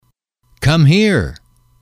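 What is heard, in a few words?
"come here", English, male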